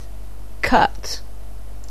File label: "cut", anglais